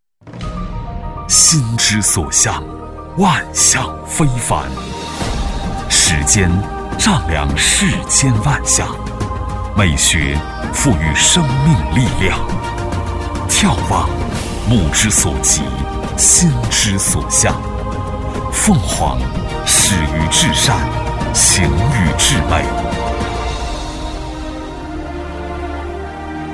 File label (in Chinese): 男 广告配音 大气优美; 心之所向 万象非凡; 男6-高端大气